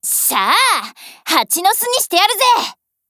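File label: GO ATTACK Japanese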